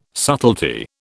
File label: "subtlety", British